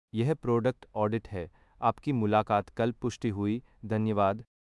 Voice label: hindi output, dub